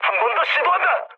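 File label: Korean bomb failure mood med; War Thunder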